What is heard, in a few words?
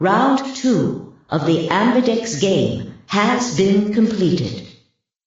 announcer round 3 completed